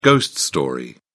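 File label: "ghost story", British English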